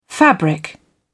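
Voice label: "fabric", British English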